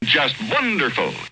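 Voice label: Voice "Wonderful", Choir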